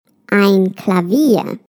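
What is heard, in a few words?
German piano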